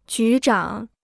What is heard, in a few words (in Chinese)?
"局长", Chinese